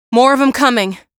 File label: combat gradual quiet; hl2; ep1; alyx